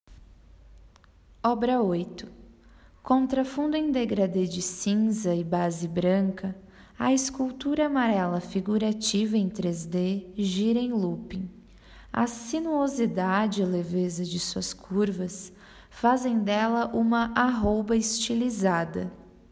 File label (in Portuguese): Audiodescricao; Exposição; Galeria Virtual